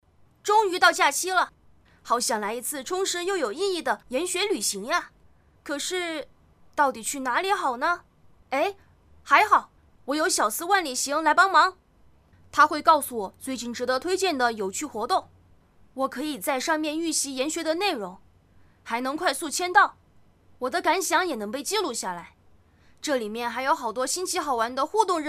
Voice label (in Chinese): 童声, 男孩